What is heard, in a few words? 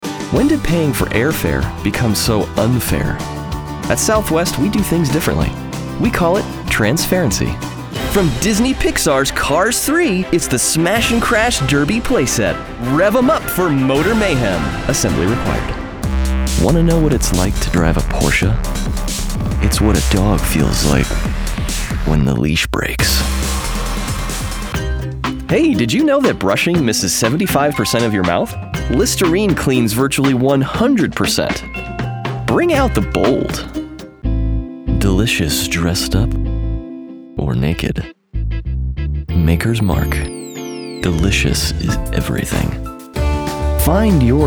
Commercial